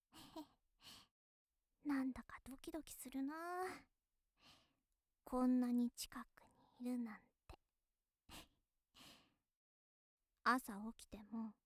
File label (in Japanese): 元気JK おやすみなさい